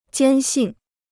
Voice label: "坚信", Chinese

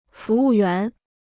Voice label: "服务员", Chinese

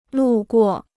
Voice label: "路过", Chinese

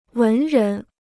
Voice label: "文人", Chinese

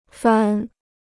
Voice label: "番", Chinese

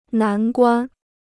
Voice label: "难关", Chinese

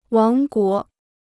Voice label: "王国", Chinese

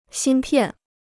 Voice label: "芯片", Chinese

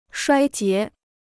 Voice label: "衰竭", Chinese

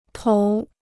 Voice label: "头", Chinese

